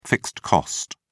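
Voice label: "fixed cost", British English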